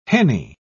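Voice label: "henny", British English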